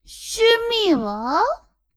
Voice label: woman